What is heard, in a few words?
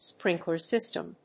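"sprinkler system", English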